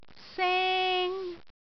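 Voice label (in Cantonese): "seng1", Cantonese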